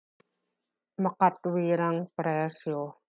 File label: Tagalog